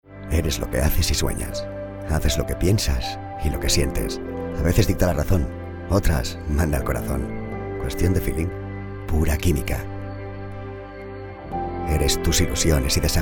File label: male spanish insurance comercial; ideasonora